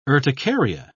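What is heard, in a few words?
"urticaria", British English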